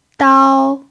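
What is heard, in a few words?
"dāo", Mandarin Chinese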